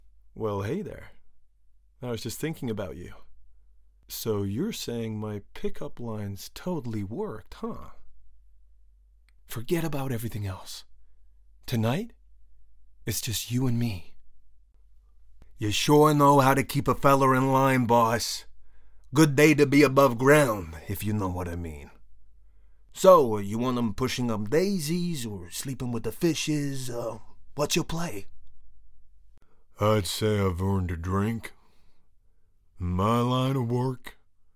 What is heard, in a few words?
US Accent